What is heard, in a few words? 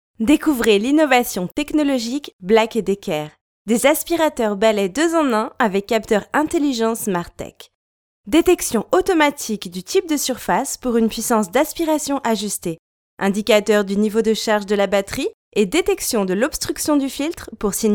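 French female; lektoring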